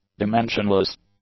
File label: "dimensionless", english; adjectives